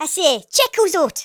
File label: "watch this", Geordie; speech